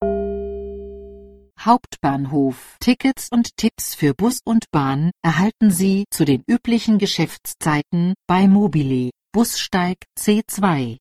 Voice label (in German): Ansage Hauptbahnhof